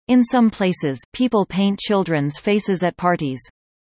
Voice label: fast; assessment